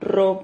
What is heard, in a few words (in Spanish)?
"rrob", Silabas